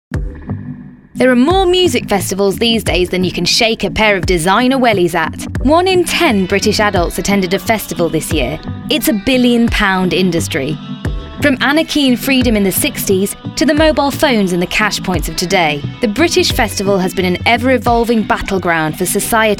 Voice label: narration

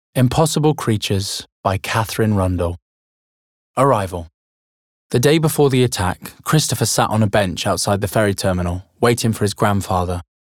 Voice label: Audiobook; Impossible Creatures

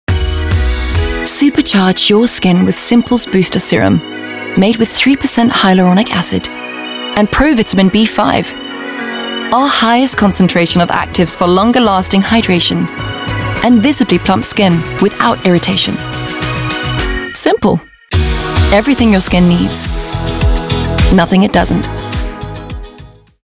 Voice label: Ad; Simple; SIMPLE COMMERCIAL

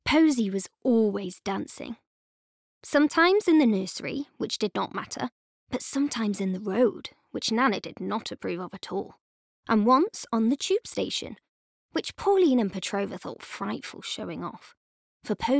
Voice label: Audiobook; Ballet Shoes

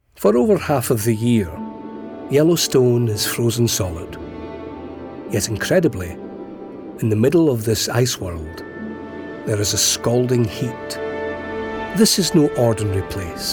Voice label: Narrative; Yellowstone

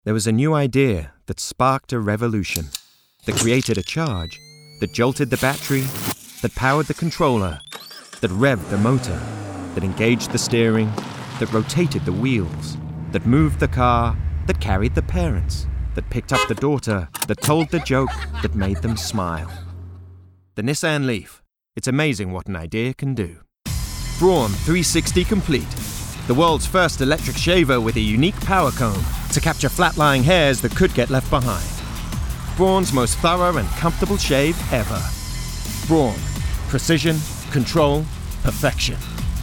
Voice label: commercial reel

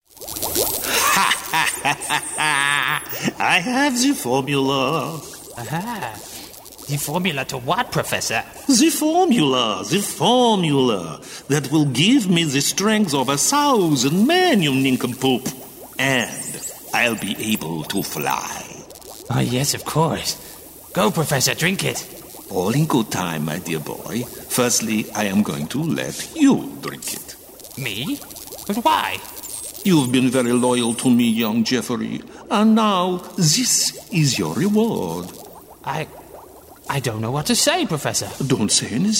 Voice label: Animation

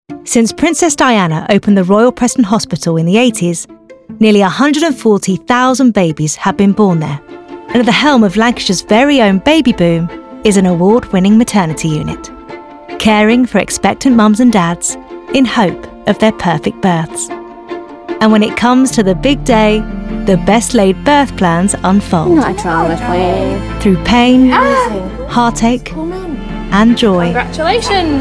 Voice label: Narration Showreel; Maternity Ward

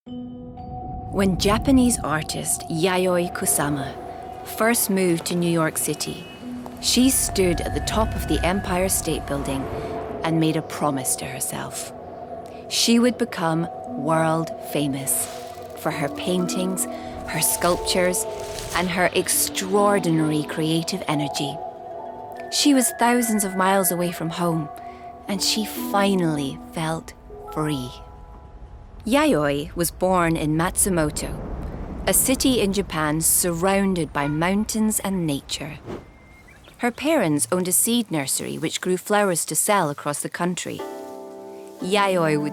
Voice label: Narrative; Yayoi Kusama

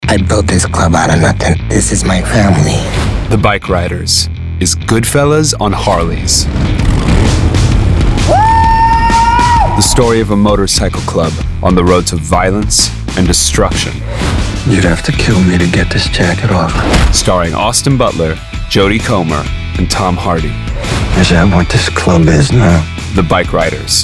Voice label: Promo; Universal Bikeriders

